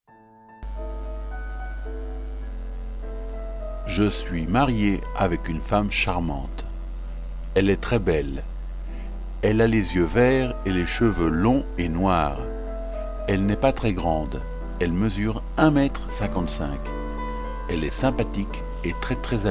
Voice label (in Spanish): dictado descripcion